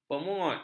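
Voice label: "pemungut", Malay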